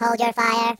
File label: Nutter, Robot; hedgewars